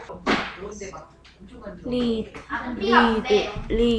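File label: "lead", spoken word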